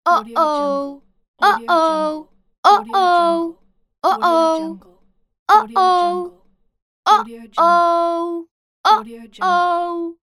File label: female uh oh trouble